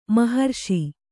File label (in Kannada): "ಮೋಹರಸ", Kannada